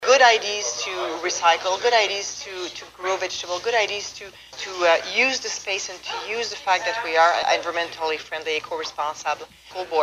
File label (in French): fr pub